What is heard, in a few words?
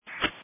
Sheriff Dispatch